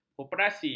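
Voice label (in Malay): "koperasi", Malay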